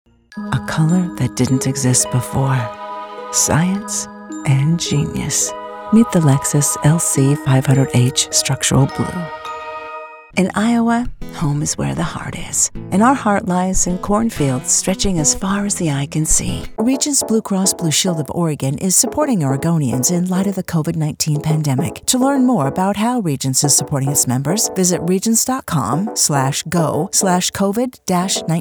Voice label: Commercial